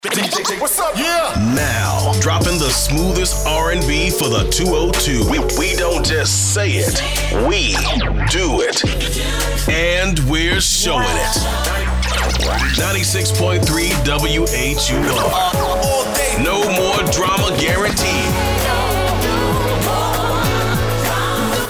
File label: radio men urban; radio imaging